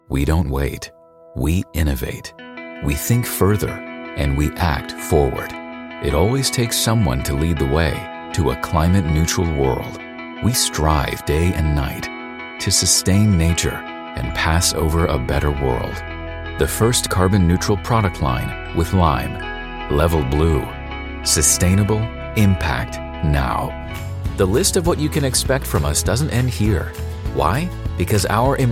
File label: American English Voiceover